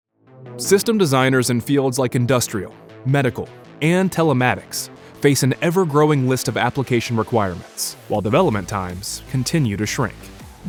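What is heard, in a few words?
E-Learning Straight, voiceover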